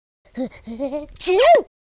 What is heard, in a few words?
idle, Blues Man speech